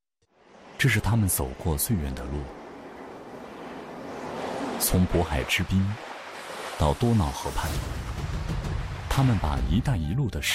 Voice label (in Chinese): 男; CCTV时代楷模